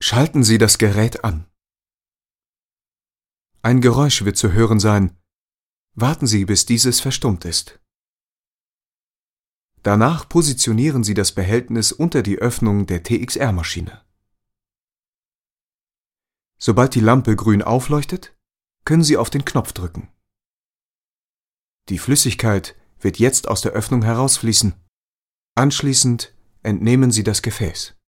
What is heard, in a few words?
e-learning